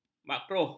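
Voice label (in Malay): "makruh", Malay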